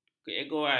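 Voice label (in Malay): "keegoan", Malay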